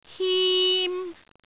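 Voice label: "him1", Cantonese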